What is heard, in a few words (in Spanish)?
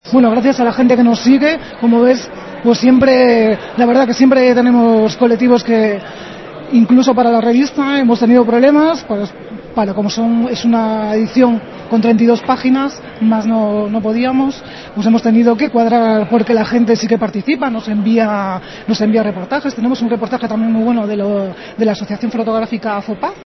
noticias